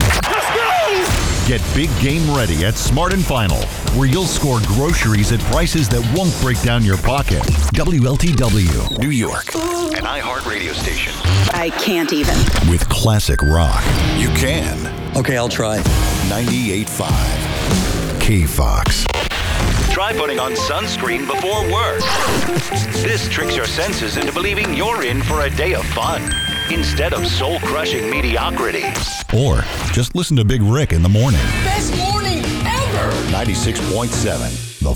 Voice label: PROMO